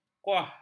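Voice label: "kuah", Malay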